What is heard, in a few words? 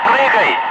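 Russian speech, Ka-50 Wingman; Messages bail out; DCS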